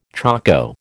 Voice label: "choko", American